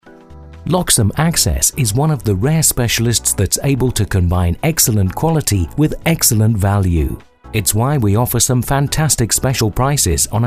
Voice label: english british ivr

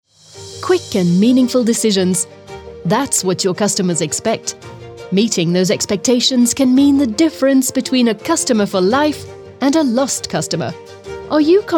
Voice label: english british corporate videos

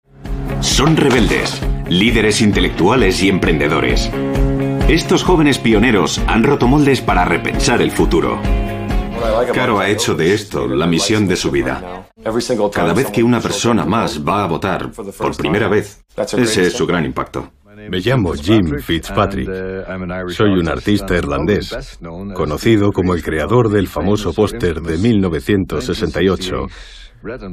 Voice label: spanish castilian documentaries

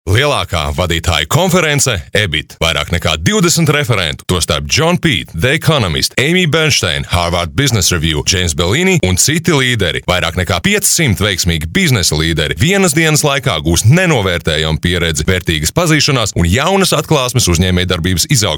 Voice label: latvian commercial

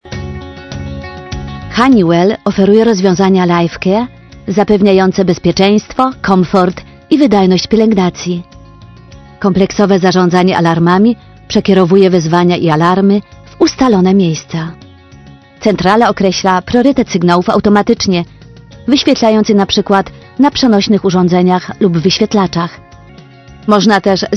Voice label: polish narration

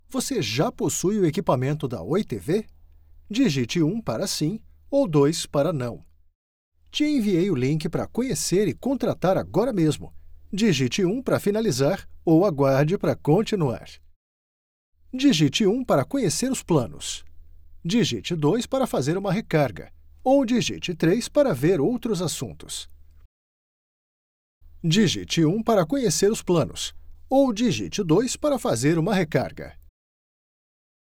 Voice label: Portuguese Brazilian IVR

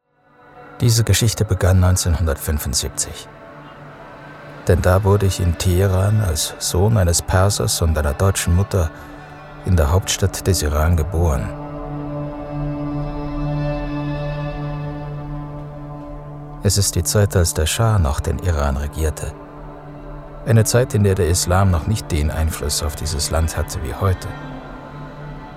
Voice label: german; Allemand Allemand (Suisse)

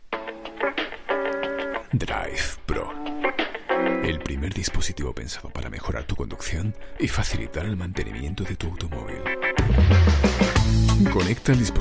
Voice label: spanish automotive

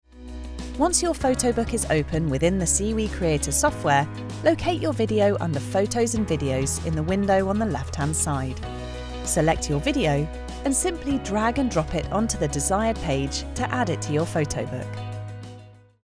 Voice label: english british explainer videos

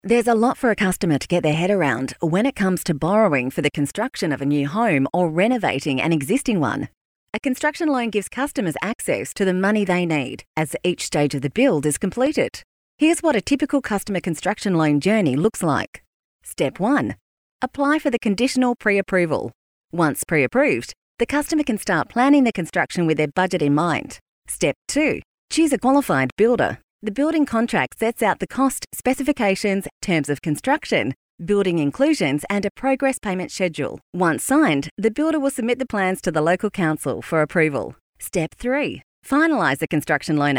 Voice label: english australian corporate videos

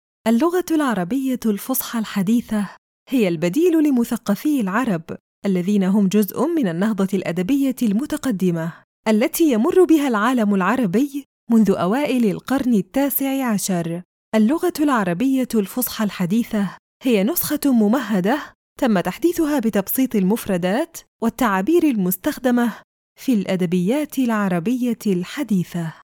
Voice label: arabic documentaries

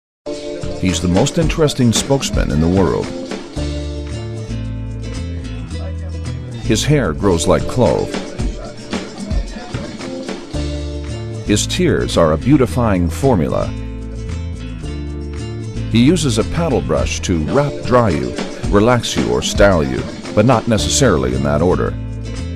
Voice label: english american animation; Profundo e autoritário com clareza elegante e calor

